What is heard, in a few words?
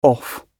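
"off", British English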